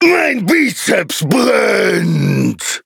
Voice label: victory, de; Cookie Run